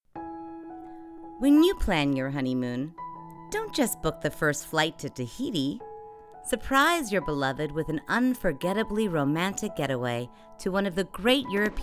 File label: Commercial; Honeymooners